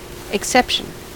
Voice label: "exception", us

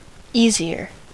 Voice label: "easier", us

